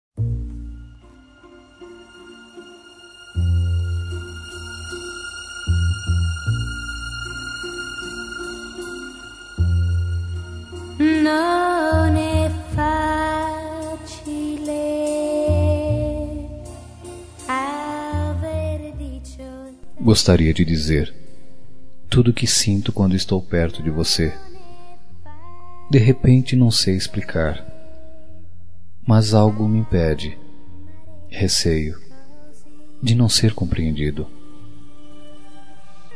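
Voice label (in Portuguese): reconciliacao poesia masculino; Telemensagens; ROM RECONCILIACAO POESIA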